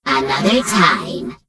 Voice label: Gnome Female Farewell; Death Knight

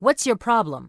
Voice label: Human Female Standard NPC Pissed

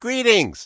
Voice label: Gnome Male Standard Greeting; NPC

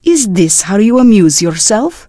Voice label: Draenei Female Military Pissed

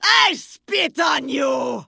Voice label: Vrykul Female Warrior Greeting

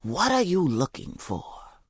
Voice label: Orc Female Standard NPC Vendor

